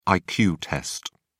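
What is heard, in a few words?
"iq test", English, British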